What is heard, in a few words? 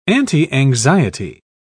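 "antianxiety", English, British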